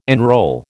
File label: "enrol", American